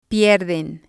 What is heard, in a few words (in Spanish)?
"pierden", spanish